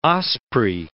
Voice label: "osprey", English